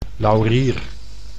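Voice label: "laurier", Dutch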